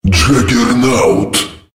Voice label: "holy shit", female